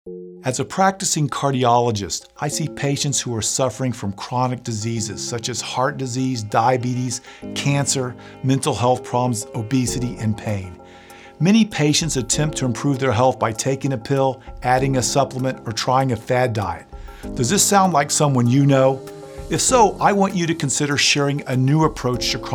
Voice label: Radio Spot